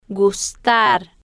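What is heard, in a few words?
"gustar", Spanish, female, slow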